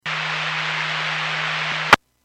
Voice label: EMS Dispatch